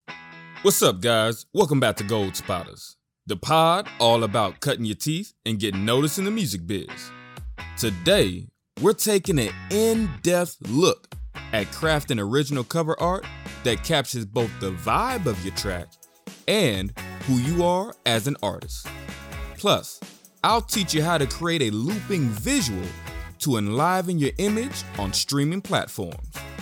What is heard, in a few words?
Podcast